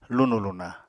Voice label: "lunù-luna", Apatani